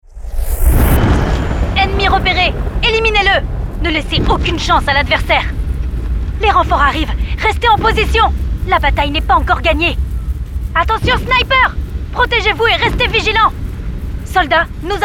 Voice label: french video games